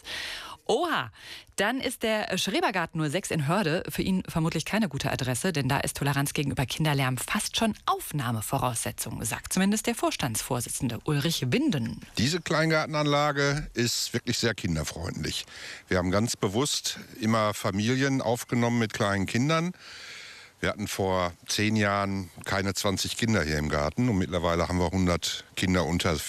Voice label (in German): kinderlaerm radio